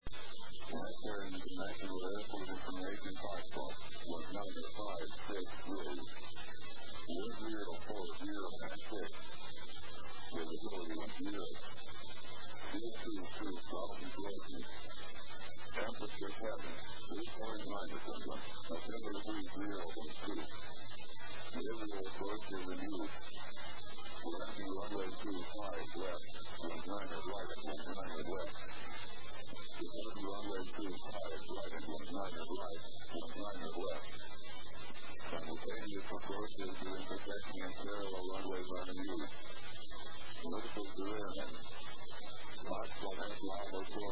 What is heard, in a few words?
ATIS, USA; LAS